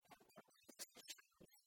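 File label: эн торк йӧзыслысь сёрнисӧ, Komi, female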